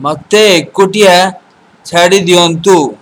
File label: leave me alone, odia